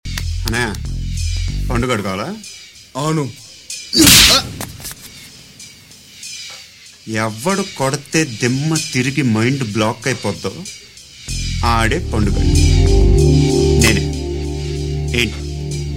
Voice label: Dialogue Telugu Ringtone; Pandu Gadu; Pokiri